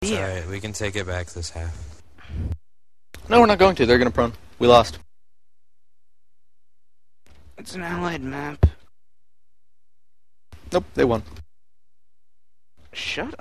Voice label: undercover ventrilo recordings halftime; Gamewyze; vs mTs; DoD Uncensored